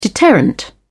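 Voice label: "deterrent", British English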